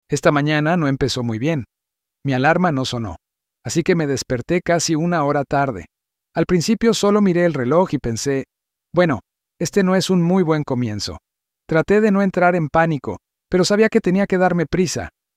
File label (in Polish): hiszpanski